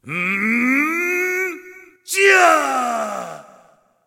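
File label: cinematic voice, Spanish; lineage2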